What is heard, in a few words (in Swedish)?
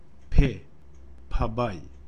Phabaj, Romska alfabetet